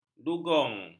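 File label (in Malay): "dugong", Malay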